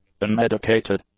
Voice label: "unmedicated", English; adjectives